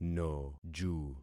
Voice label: "noju", concat syllables; Affixing experiment